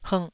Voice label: "hngh2", Taiwanese syllable